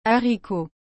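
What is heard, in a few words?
"haricot", French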